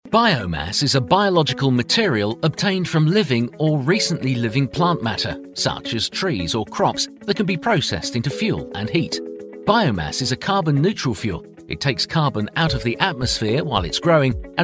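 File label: British English, male, narration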